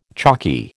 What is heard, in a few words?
"chalky", American